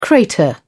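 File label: "crater", English, British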